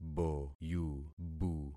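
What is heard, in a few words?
"boyubu", concatenated syllables; Affixing experiment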